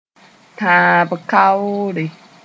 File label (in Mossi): "tãb-kaoore", moore